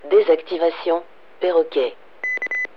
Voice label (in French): Desactivation perroquet